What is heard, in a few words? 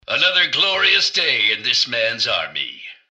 generic robot hello; mrgutsy; npc